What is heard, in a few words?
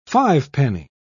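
"fivepenny", British English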